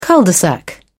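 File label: "cul de sac", British English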